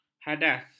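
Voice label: "hadas", Malay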